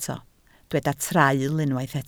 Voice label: "traul", Welsh

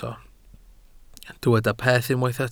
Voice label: "peth" Welsh

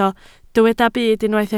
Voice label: "byd", Welsh

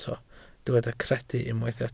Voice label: "credu" Welsh

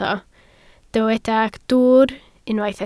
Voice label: "dwwr", Welsh

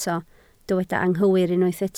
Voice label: "anghywir", Welsh

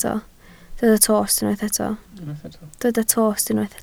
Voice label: "tost", Welsh

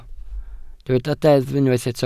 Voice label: "deddf" Welsh

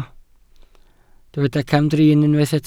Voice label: "camdrin", Welsh

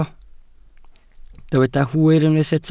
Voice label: "hwyr", Welsh

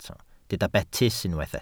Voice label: "betys", Welsh

